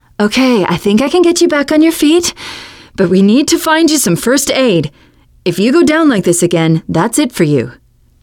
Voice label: revive critical friend, teengirl; player; survivor; voice